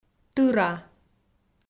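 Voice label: "тыра", Komi, female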